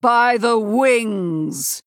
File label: Female Blood Elf Attack